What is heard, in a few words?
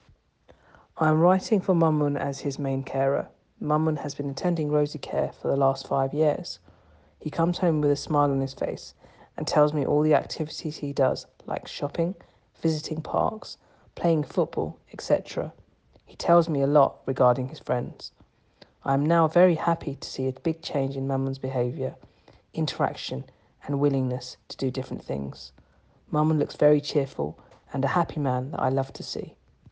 Voice over